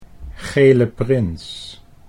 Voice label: "Gele Prins", Dutch